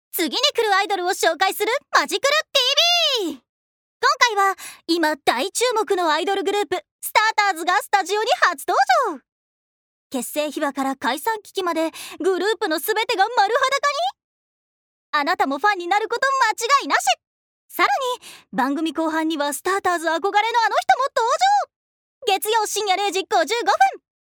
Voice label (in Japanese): ナレーション